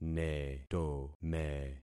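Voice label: "netome", concatenated syllables; Affixing experiment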